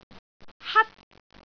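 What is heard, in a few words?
"hat1", Cantonese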